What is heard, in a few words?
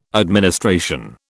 "administration", British